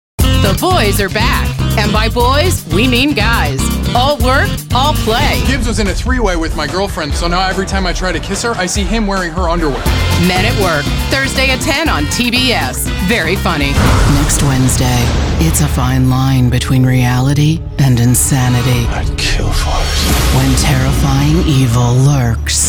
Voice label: Promos